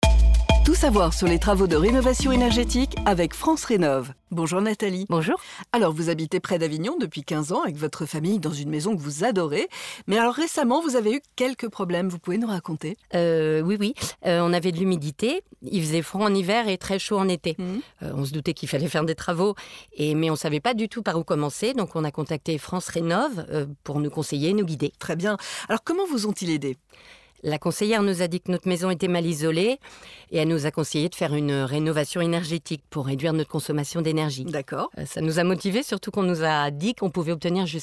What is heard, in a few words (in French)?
pub temoignage; france renov